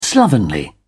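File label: "slovenly", British English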